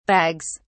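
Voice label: "bags", British English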